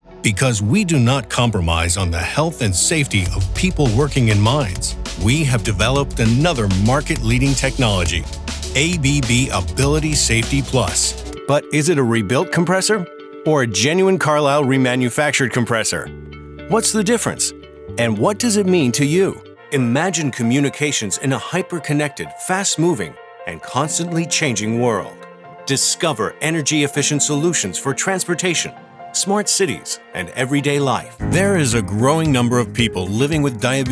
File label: Corporate Voice Over